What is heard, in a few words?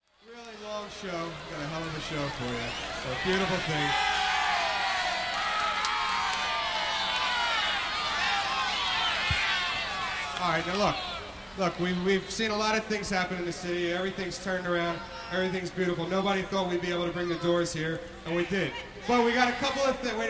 House Announcer